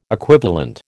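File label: "equivalent", American English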